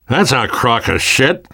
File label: world hospital, namvet, survivor voice